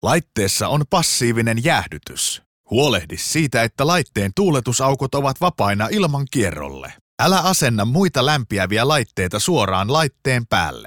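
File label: Finnish, e-learning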